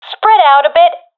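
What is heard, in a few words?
request spreadout, professional female; vo; Counter Strike Model Pack; CS:GO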